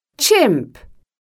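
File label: "chimp", English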